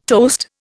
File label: "toast", Indian